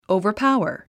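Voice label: "overpower", English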